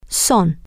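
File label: female, spanish; they Are Permanent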